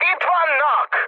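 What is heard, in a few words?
Hungarian enemy detected mood high, speech; War Thunder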